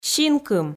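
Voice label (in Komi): "синкым", Komi, female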